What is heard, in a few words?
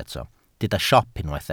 "siop", Welsh